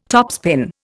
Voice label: "topspin", Indian